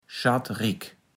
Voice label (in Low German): "schattrick", Platt